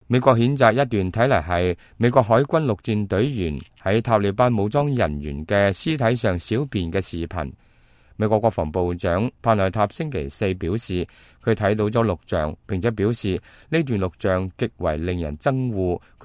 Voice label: cantonese, news; 美國調查疑似美軍侮辱塔利班屍體事件